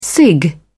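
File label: "cig", British English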